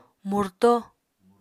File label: "murto", Apatani